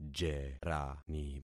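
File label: "jerani", concatenated syllables; Affixing experiment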